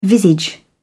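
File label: "visage", English, British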